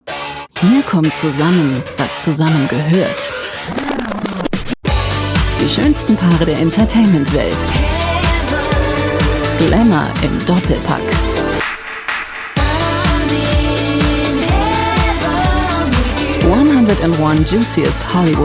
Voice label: TV TRAILER; viva; 101 juiciest Hollywood hookups